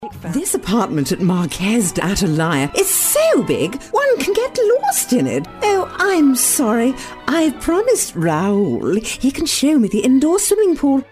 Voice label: posh, voice

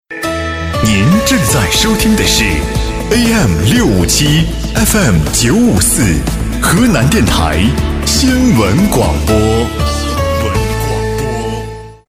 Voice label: mandarin radio imageing

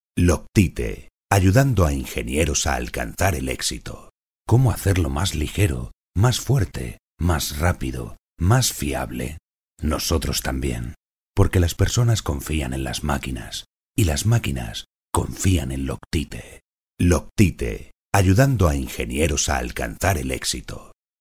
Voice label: commercial

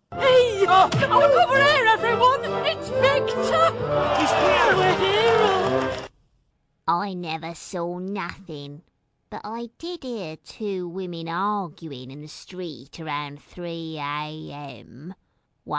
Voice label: character

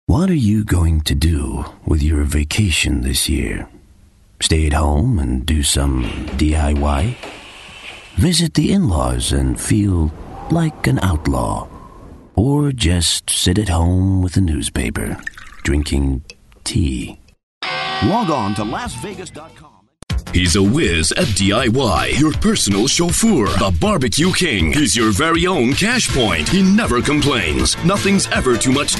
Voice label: American

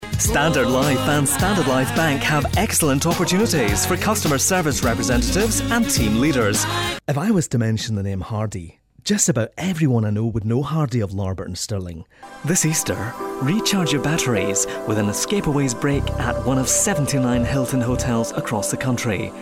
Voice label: commercials

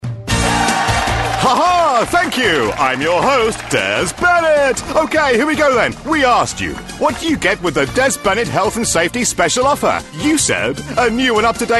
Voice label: game show

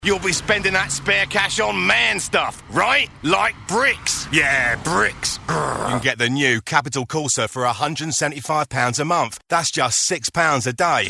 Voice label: cockney, voice

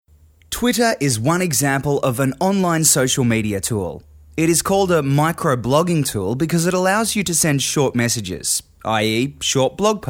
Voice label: Corporate

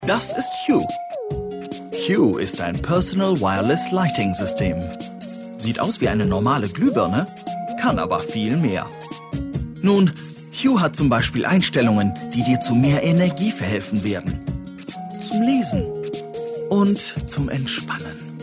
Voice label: corporate

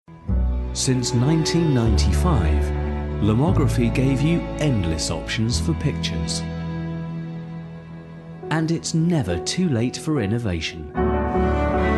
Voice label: documentary

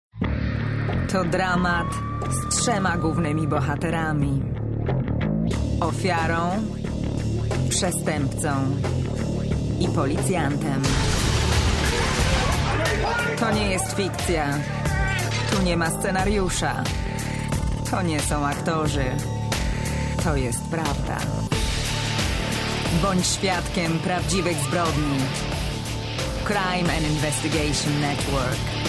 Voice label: polish promo

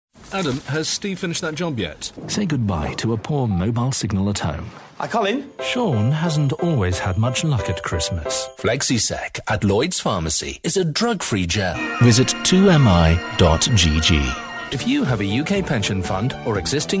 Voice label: commercial

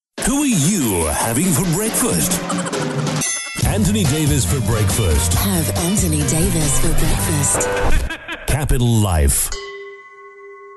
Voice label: radio imaging

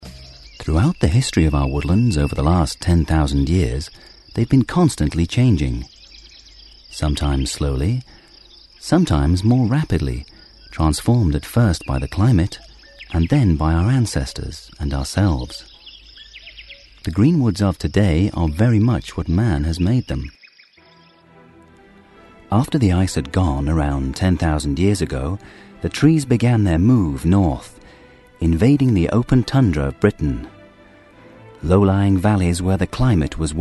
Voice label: narration

